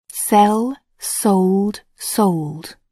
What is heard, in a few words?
"sell", English